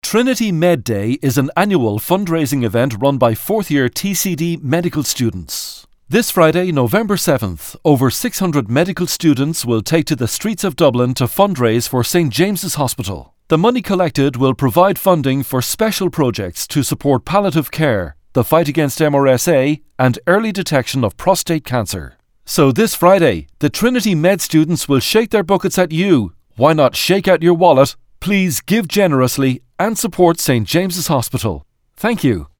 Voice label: radio ad calm